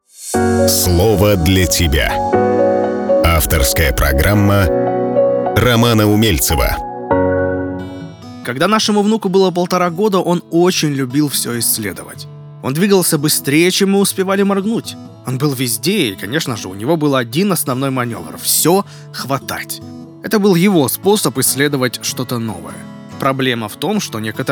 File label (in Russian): golos kotoryj govorit nezhno